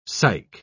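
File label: "psych-", British English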